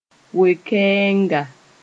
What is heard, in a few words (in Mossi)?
"we-kɛɛnga", moore